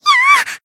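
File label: damaged, Japanese; nekoko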